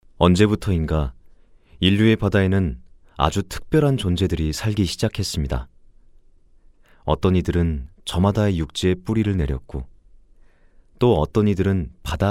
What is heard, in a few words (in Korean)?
차분한 나직한 자연스러운; 등대